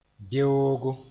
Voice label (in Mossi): "beoogo", moore